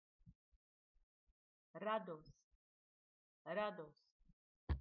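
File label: "joy", Greek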